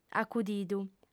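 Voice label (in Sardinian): "acudidu", lingua sarda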